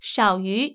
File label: less than, zh-cn sounds ivr; BigBlueButton; freeswitch